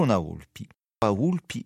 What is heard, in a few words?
"volpi vulpi", Rocca (sud)